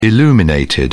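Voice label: "illuminated", British English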